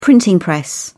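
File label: "printing press", British English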